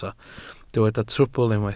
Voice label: "trwbl", Welsh